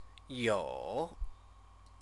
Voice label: "you", Chinese; CTCFL; University of Oxford